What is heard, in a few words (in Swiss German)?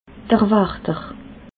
"wachter", alsace